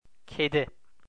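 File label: "kedi", Turkish, male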